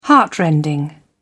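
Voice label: "heartrending", British English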